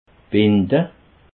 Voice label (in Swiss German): "binde", alsace